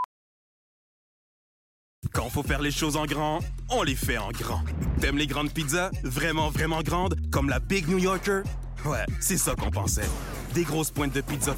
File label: anglesh; pizzahut